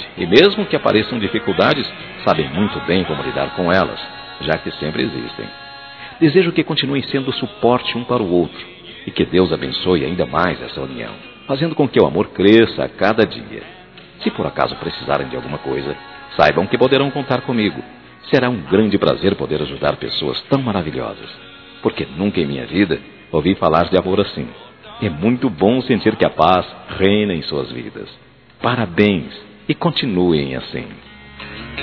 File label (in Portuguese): Aniversário Casamento Geral Masculino